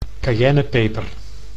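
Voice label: "cayennepeper", Dutch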